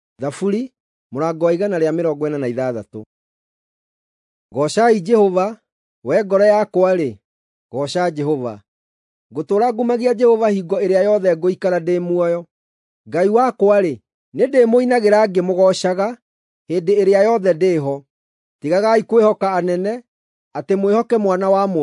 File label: psalms, gikuyu drama